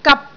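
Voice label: "gap3", Cantonese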